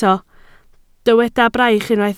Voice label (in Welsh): "braich", Welsh